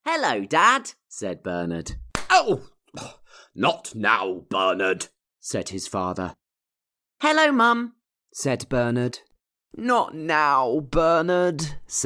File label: Audiobook Childrens